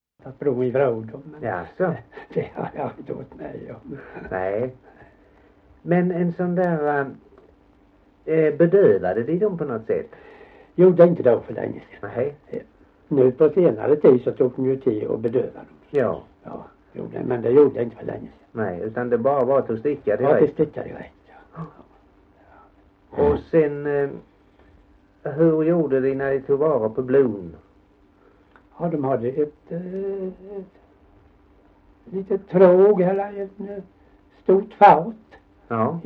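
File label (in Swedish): Gällared Halland, Svenska sagor, dialekter; Folkminnen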